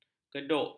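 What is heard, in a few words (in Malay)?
"keduk", Malay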